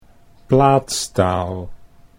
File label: "plaatstaal", Dutch